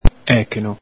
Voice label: "echeno", Veneto

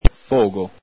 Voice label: "fogo", Veneto; ENG: fire; ITA: fuoco; el Galepin

